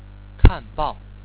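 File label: "kanbao", Chinese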